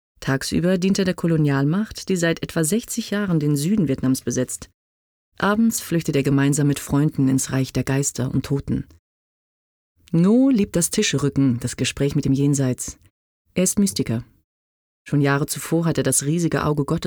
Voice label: doku

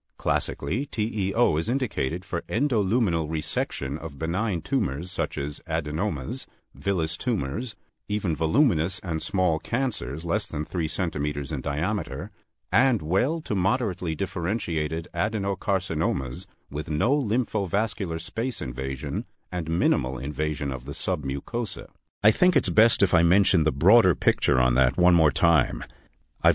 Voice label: us englisch

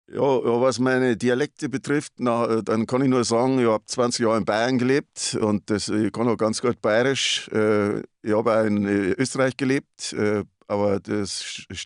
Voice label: dialekt; LOFT VOICES

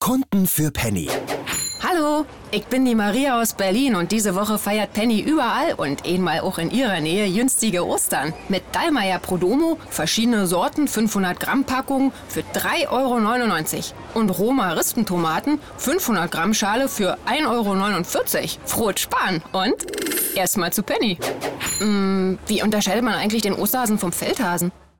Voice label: Berlinierisch; LOFT VOICES

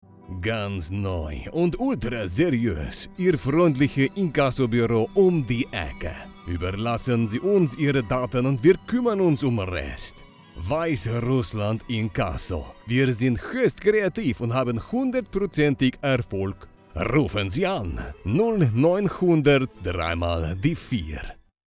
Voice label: russischer Dialekt mit Musik; LOFT VOICES

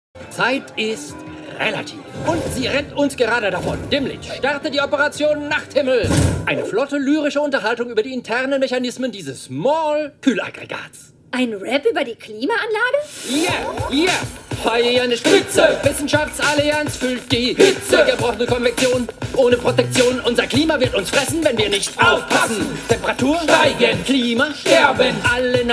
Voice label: Synchron Animation Rap

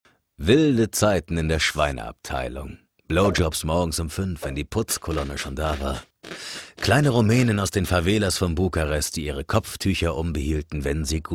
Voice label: hoerspiel

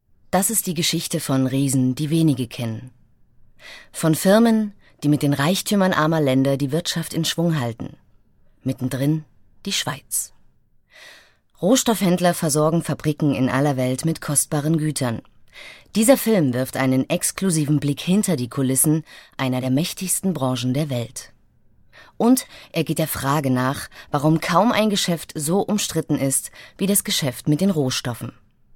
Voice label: Dokumentation; LOFT VOICES

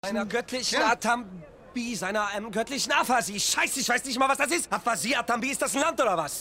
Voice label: Drama vulgaer

